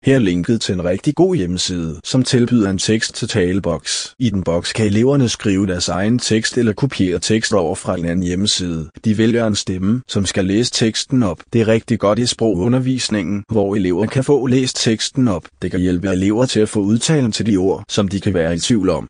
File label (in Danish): Dansk